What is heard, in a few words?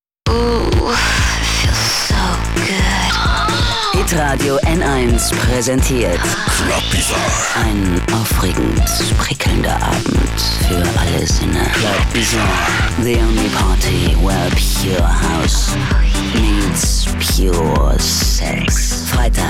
Promo Spot; CHR